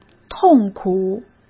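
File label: "痛苦", Mandarin Chinese; chinese-flashcards; hsk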